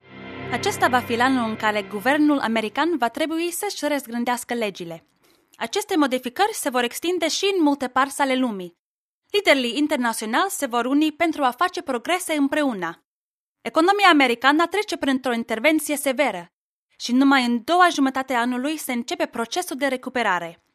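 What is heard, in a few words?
Romanian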